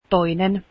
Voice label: second, finnish